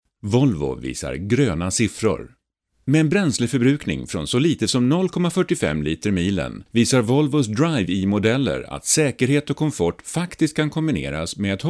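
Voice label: Swedish, male, voice over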